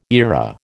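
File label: "era", American English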